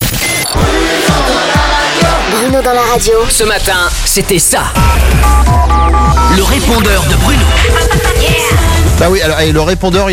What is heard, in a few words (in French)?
repondeur